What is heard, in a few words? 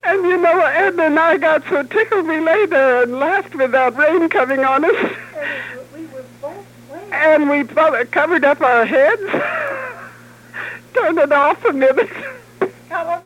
Oral history with 77 year old female Grand Junction Colorado, american english dialect